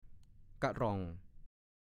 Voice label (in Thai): "กะหร่อง", ภาษาโคราช